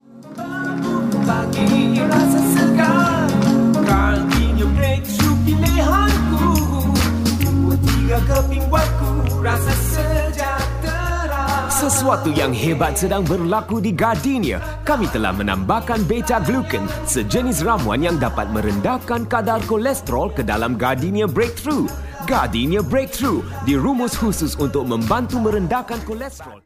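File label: Malay jingle and voice over; GARDENIA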